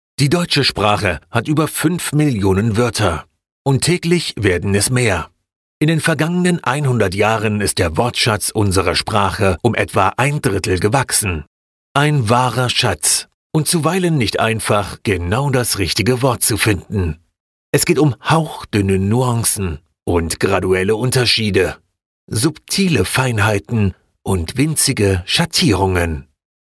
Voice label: german voice documentary